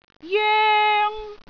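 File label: "joeng1", Cantonese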